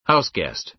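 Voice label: "house guest", American English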